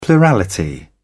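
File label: "plurality", British English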